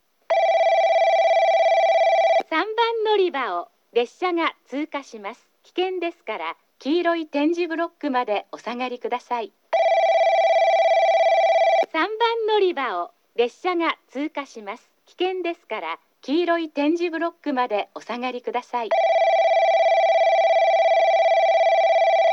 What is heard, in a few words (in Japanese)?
通過放送＋ベル 女声; JACROS簡易型